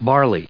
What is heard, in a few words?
"barley", en us